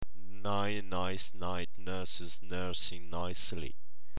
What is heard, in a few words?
nine, tongue twisters